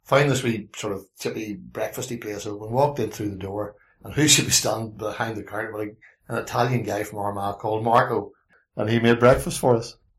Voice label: PORTADOWN MALE aged 60